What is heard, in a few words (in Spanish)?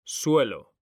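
"suelo", male; mekanta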